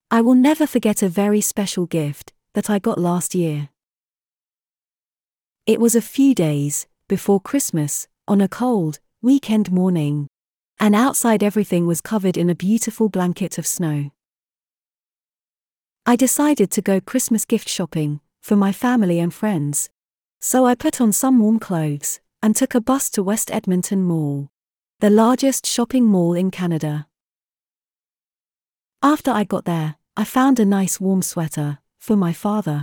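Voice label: British; a very special gift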